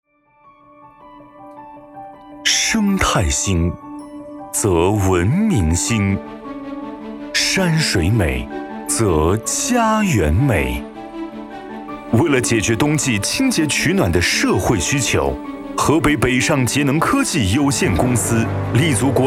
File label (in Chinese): 企业宣传片 大气